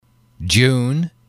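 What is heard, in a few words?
"June", English, male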